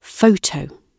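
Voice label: "photo" spoken, British English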